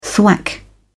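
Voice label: "thwack", British English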